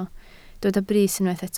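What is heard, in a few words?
"brys" Welsh, spoken word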